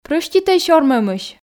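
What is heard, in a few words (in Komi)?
прӧститӧй сёрмӧмысь, Komi, female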